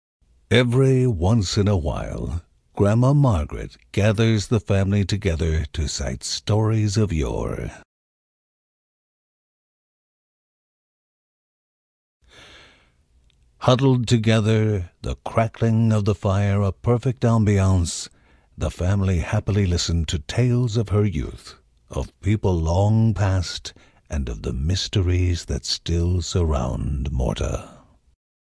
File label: Grandma Story narration; children of morta; Procedural Events